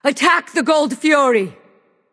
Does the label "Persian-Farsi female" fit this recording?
No